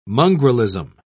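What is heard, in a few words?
"mongrelism", English, British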